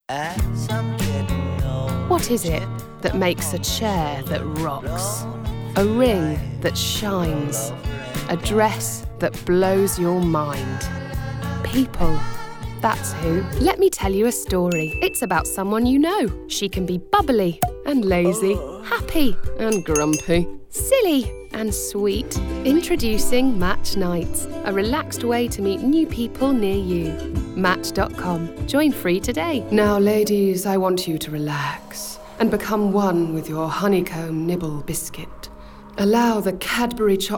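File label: commercials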